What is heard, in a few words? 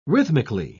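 "rhythmically", British English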